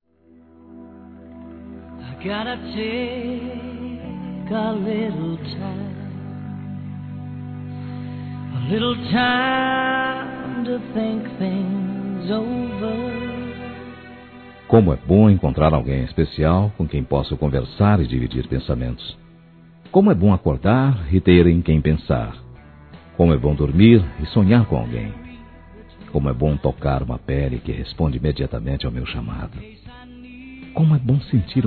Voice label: Romântica Geral I Masculino

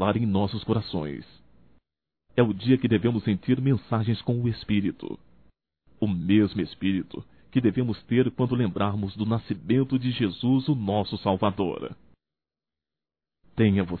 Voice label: NATAL NEUTRO; masc; Telemensagens 2017; Natal & Ano Novo

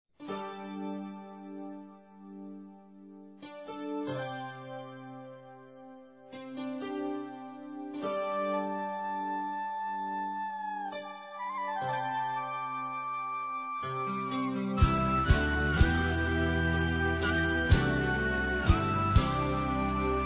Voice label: Mensagem Romântica Feminino; Telemensagens; Telemensagens 2017

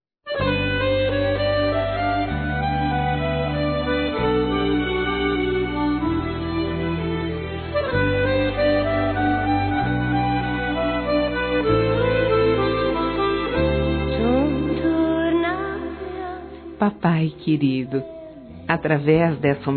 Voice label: Neutro, fem; Telemensagens 2017

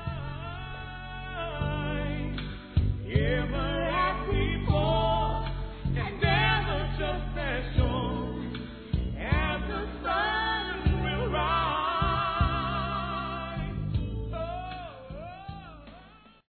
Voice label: Aniversário Neta Feminino

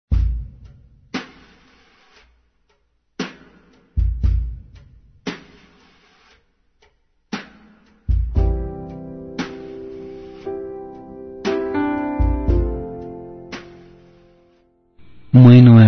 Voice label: Dia das Mães Adotiva Masculino, Telemensagens; Telemensagens 2017

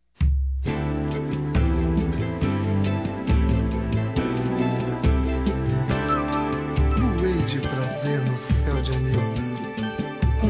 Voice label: Aniversário TiO Feminino; Aniversários; Aniv Familiar

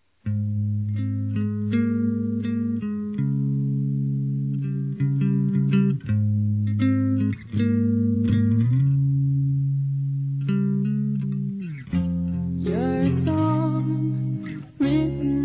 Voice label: Perdão Romantico Feminino, Telemensagens; Desculpas; Telemensagens 2017

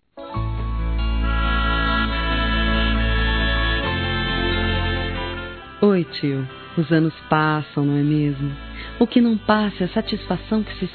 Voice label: Aniversáro Tio Feminino; Aniversários; Aniv Familiar

